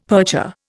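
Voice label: "percher", Indian English; Dictionary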